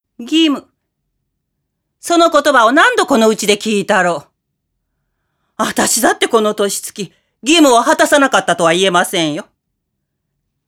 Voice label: female voice